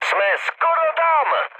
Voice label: near waypoint, speech cze, mood high; War Thunder